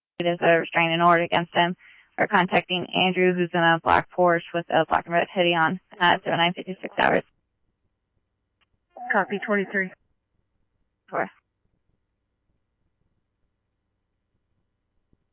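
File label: scanner, Phoenix Police Department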